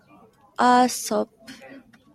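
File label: Japanese, female; Aesop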